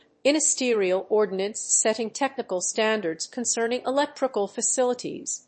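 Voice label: "ministerial ordinance setting technical standards concerning electrical facilities", English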